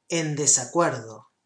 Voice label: DISAGREE, SPANISH